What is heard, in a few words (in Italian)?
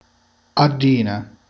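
"gallina", Italian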